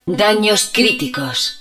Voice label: Damage Critical, Spanish